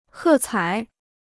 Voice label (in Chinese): "喝彩", Chinese